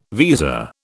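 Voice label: "visa", British English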